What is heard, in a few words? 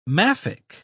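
"maffick", British English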